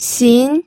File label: "xin2", pinyin